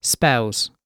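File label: "spells", British English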